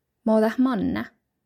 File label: "Mo dat manná?", Northern Sami; Northern Sami page 48